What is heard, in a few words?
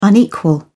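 "unequal", British English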